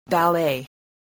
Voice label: "ballet", english

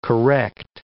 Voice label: "correct", English

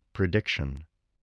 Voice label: "prediction", voice, spelling

